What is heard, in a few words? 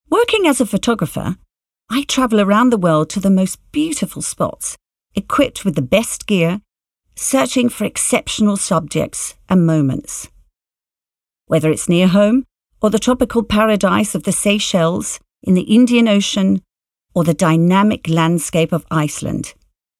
voice over